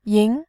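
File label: "yin", Mandarin Chinese, tone 2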